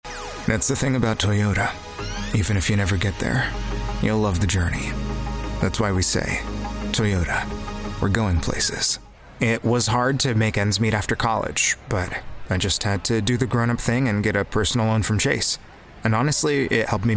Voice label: Commercial